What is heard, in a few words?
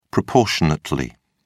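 "proportionately", British English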